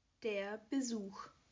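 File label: "Besuch", German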